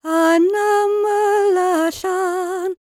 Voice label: WELSH F, World Voices

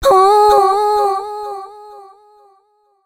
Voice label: female c#133, adlips

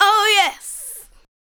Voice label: ADLIBS 90; Ueberschall; Jam Box

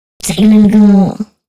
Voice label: female spoken hooks, dance ultra voice

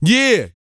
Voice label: "YEAH" F; R&B & Dance; HIP-HOP; ILIO; Vocal Planet

